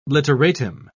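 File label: "literatim", British English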